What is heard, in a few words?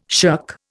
"shirk", Indian